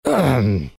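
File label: pain, Chinese; dota2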